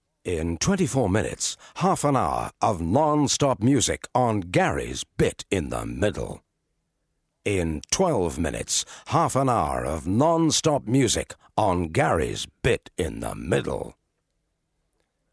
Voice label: voiceover